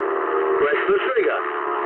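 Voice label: English attack position mood low; speech; War Thunder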